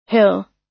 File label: "hill", us